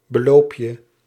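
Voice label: "beloopje", Dutch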